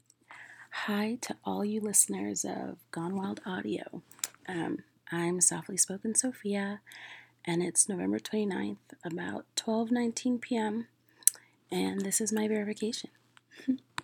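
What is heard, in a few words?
Female verification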